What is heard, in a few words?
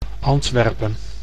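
"Antwerpen", Dutch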